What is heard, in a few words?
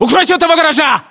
order cover gate, ru